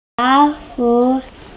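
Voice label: "bai2yun2", Mandarin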